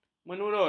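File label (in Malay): "menurut", Malay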